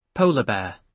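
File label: "polar bear", British English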